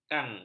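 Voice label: "kang", Malay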